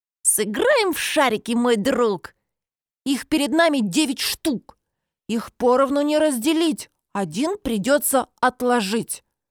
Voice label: пародия. Мальчик-подросток

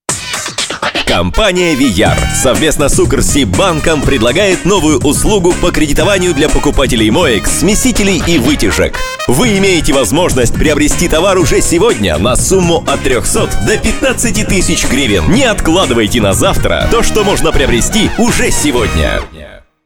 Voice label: аудиоролик; voices male; вияр

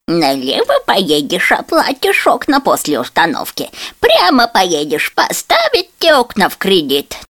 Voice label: пародия. голос бабушки

